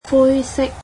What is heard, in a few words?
"grey", cantonese; adjectives